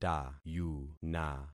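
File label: "tayuna", concatenated syllables; Affixing experiment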